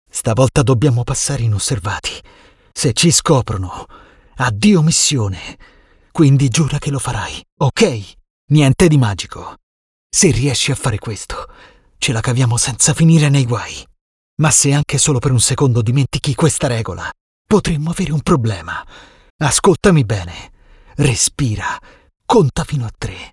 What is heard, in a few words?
Cartoon Character Animation Dubbing; en-US